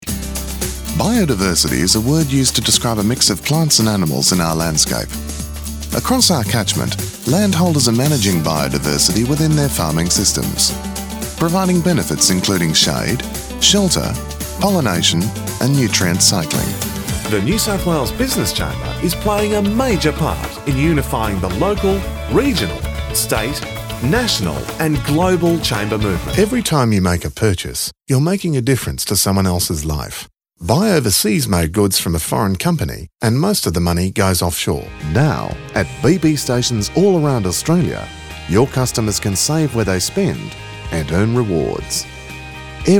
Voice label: Narrations